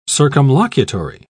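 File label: "circumlocutory", British English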